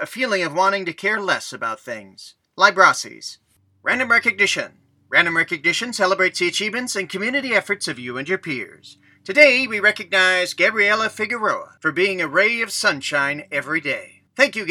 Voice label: Announcements